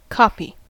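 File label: "copy", US English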